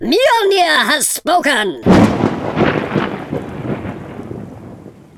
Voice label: excellent, speech, Viking